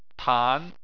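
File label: "tan", Mandarin Chinese, second tone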